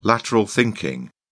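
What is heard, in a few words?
"lateral thinking", British English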